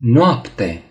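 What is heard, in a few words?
"night", Romanian; gcompris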